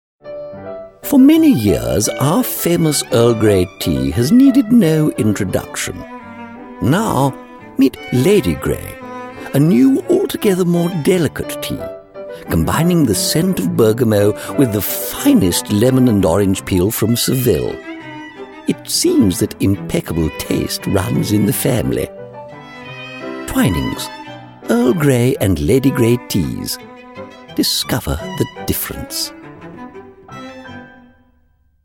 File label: advert; twinning's